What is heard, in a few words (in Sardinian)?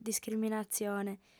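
"discriminatzione", female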